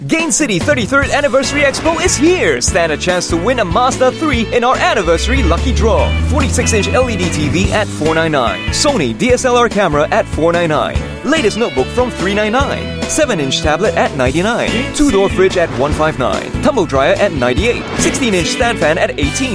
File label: Asian English; GainCityExpo